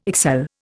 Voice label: "excel", Indian

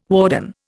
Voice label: "warden", Indian

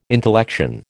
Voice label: "intellection", American

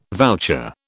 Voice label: "voucher", British

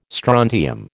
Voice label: "strontium", American

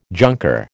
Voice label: "junker", American

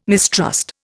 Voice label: "mistrust", Indian

